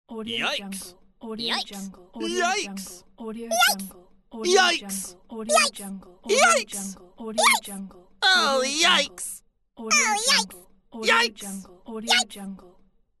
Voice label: yikes comical and cartoon voice pack